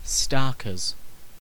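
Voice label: "starkers", British English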